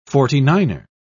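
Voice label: "forty-niner", English, British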